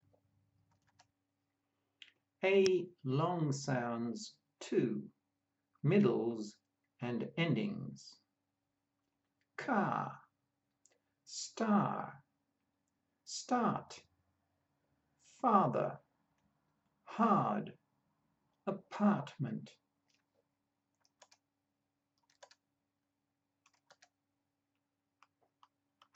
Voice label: A long sounds, pronunciation of australian english